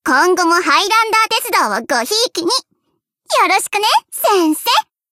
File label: chat; svencoop; voice commands; hl Nozomi